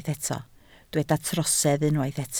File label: "trosedd", Welsh